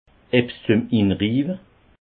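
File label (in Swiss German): "inriiwe", Alsatian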